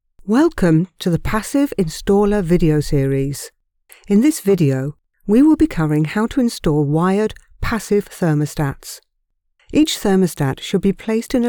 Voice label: Passive Thermostats, Corporate Explainer Video, British, Female Voice Over